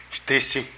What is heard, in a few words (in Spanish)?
Presidente, Zapoteco de Petapa; Moderno; Vocabulary